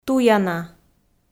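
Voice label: "туяна", Komi, female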